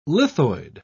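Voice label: "lithoid", British English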